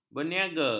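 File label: "berniaga", Malay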